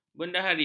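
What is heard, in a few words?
"bendahari", Malay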